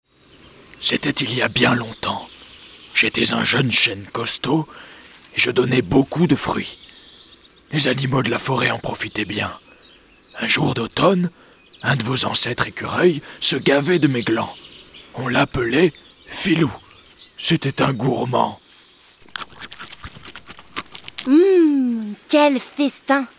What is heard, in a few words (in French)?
Histoire